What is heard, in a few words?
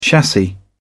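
"chassis", British English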